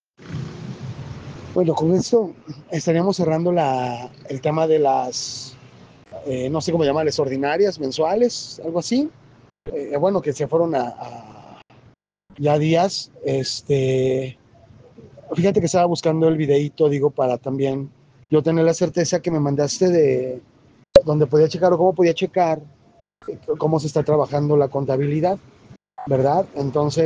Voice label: whatsapp ptt